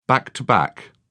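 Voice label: "back to back", British English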